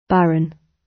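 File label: "barren", British English; Time, history; Thời gian, lịch sử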